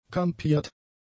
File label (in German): "kampiert", German; adjectives